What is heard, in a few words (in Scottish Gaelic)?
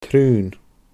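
"traon", Scottish Gaelic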